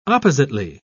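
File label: "oppositely", British English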